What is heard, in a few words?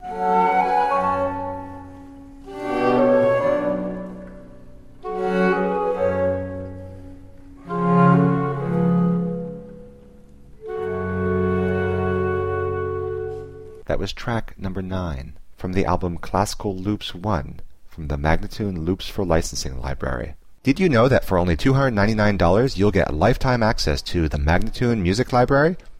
american baroque spoken